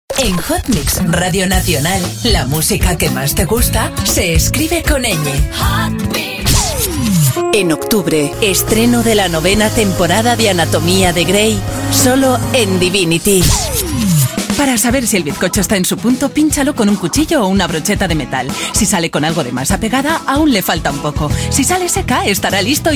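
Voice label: female Castilian Spanish voice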